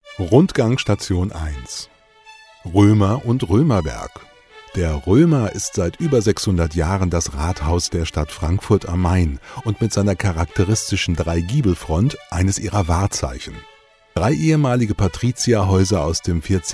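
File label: German, male, narration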